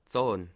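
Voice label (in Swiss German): "zahn", Bas Rhin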